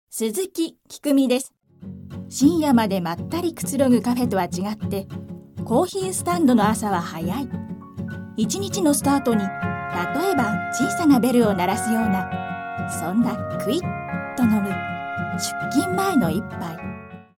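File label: female tv narration, English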